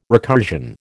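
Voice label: "recursion", American English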